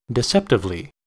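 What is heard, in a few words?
"deceptively", US English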